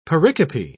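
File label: "pericope", British English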